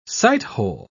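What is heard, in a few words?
"sighthole", British English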